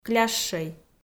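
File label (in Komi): "клящӧй", Komi, female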